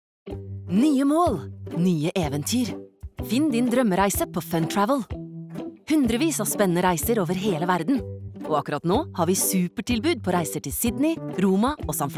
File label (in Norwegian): no reklame